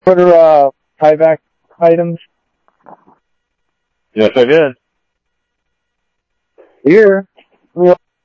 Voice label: Police, scanner; SCTSDLE PD - Tec; H-Scottsdale; ScottsdalePolice

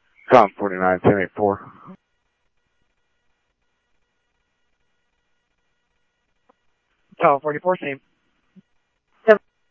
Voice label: Scottsdale Police, scanner audio

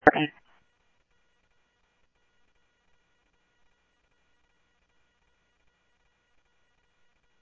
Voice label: Police, scanner audio trunk; H-Scottsdale; SCTSDLE PD - PD2 ScottsdalePolice

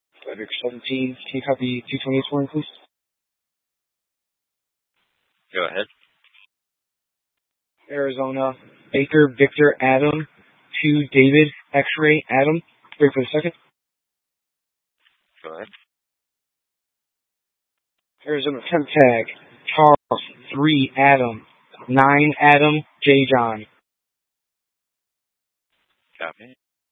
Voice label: Mesa PD Dispatch; TOPAZ Rgnl Wireless Co-op (TRWC); Fiesta

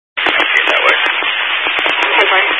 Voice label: Highway Patrol, Phoenix Metro East Area, scanner

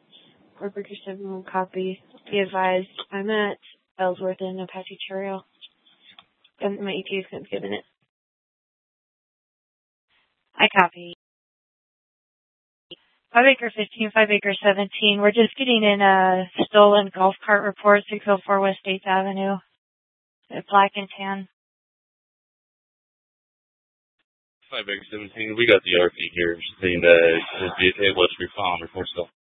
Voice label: Mesa PD Fiesta Dispatch

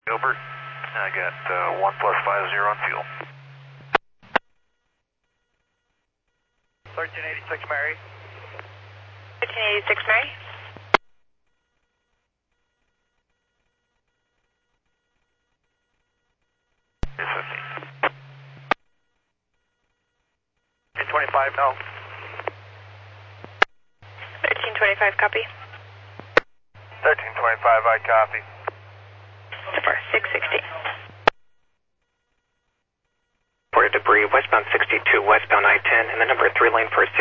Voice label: Medevac, scanner; NativeAirAmb

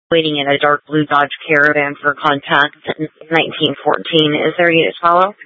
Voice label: Police Dispatch, scanner; Phoenix Police 400 South Mountain Precinct

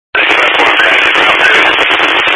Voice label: Highway Patrol, scanner; DPS; Dist 06 Casa Grande

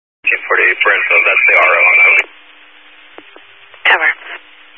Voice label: Highway Patrol, scanner; DPS EAST; Dist 13 Phoenix Metro East Area

